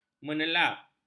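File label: "menelap", Malay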